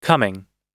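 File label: "coming", American English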